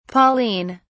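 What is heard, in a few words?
"pauline", British English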